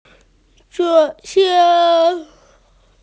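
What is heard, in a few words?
"train", Mandarin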